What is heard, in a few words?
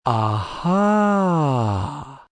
Ah Haa I See, male; Reaction; Exclamation